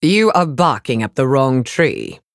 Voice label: Elf Emote Threaten; Alleria

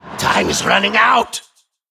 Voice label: Male Ethereal Emote Time

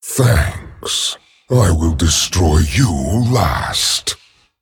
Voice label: Male Dragon Thanks

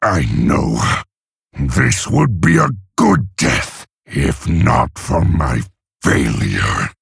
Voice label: Male Orc

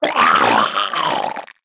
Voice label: Male Mantid Death

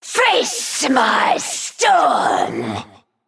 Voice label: Female Naga; Story Hero Darkscale; Story Rexxar Mission5 Hero Power

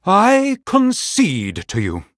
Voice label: Male Human Concede

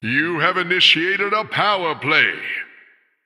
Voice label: Male Human After Triple

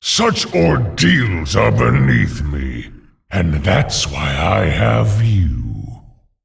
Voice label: Male Dragon; Nefarian

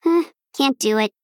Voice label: Female Gnome ERROR

